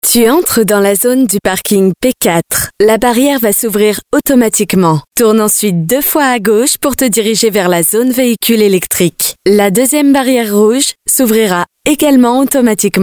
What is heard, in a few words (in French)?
FR; French